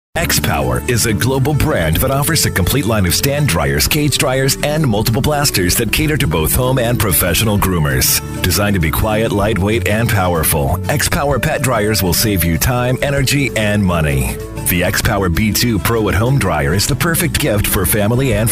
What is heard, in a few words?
Commercial; XPOWER